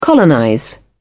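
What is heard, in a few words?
"colonize", British English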